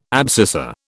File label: "abscissa", British